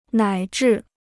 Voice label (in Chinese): "乃至", Chinese